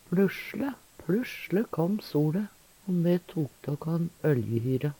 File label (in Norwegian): plussjle, Numedalsmål; PLussjle kåm soLe o me tok tå kan øljehyre.; Adverb